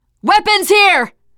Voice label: spot weapons, teengirl, voice; player; survivor

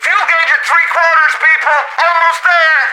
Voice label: radio in transit; Left 4 Dead 2; left4dead2 dlc3; planepilot; npc

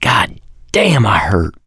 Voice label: going to die, survivor voice mechanic

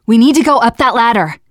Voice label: generic directions, teengirl, voice; player; survivor

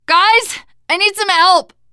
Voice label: help, teengirl, voice; player; survivor

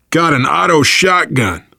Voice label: take auto shotgun, voice, biker; Left 4 Dead 2; survivor

